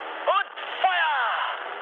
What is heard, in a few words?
attack position, mood high, speech ge